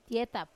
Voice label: "tietap", she is diligent, wamesa